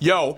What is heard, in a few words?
"yo", voice